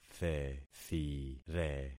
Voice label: "thethire", concat syllables; Affixing experiment

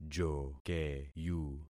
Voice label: "jokeyu", concat syllables; Affixing experiment

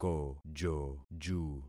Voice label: "kojoju", concat syllables; Affixing experiment

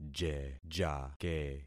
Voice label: "jejake", concat syllables; Affixing experiment

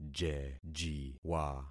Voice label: "jejiwa", concat syllables; Affixing experiment

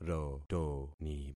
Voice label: "rotoni", concat syllables; Affixing experiment

